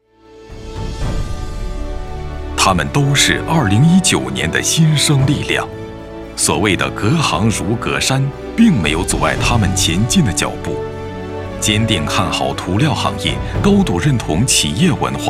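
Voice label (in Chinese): 颁奖团队类最佳团队温润大气刚柔并济公司企业团队晚会年会, 男配音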